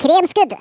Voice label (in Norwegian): "excellent", Norwegian; Speech; 11khz